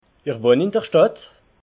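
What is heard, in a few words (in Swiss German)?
"ich wohn", Alsatian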